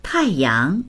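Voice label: "tai4yang2", Mandarin Chinese; tàiyáng; 太阳; 太陽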